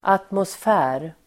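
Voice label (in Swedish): "atmosfär", Swedish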